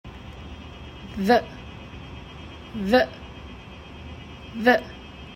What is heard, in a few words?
voiced th